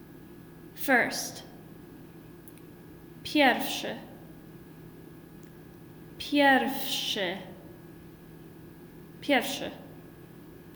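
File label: First, Polish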